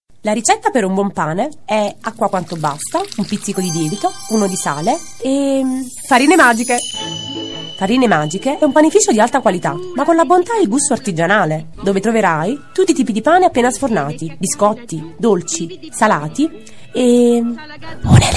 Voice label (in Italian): divertente donna; voci